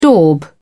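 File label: "daub", English, British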